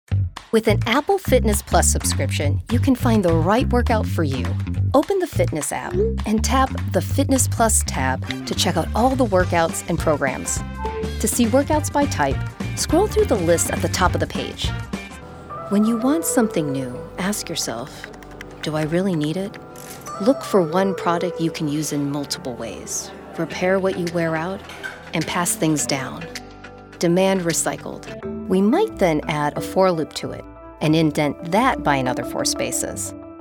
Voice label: Narration